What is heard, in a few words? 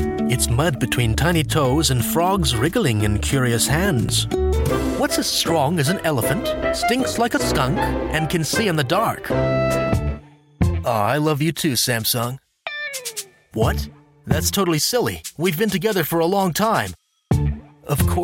english american commercial